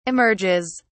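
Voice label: "emerges", British English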